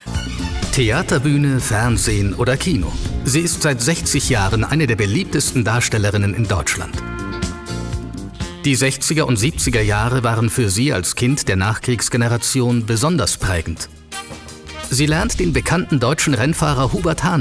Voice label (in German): kommentar; Muttersprache Deutsch